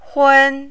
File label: "hun1", Mandarin